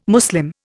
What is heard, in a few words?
"Muslim", Indian